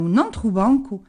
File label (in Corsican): “bancu”, Gravona (sud)